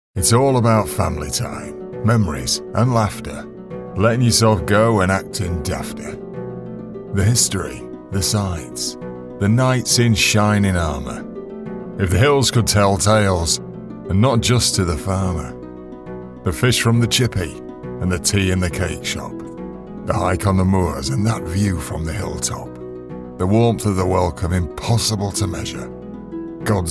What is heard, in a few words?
Yorkshire and Northern accent VO